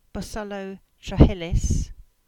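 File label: "Bosullow Trehyllys", English; place-names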